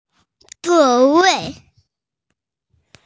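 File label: "zuo wei" Chinese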